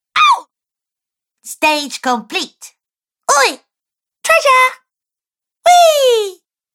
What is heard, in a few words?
Cartoon Girl Variety Pack, Cartoon Voice